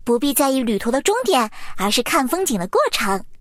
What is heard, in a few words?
sad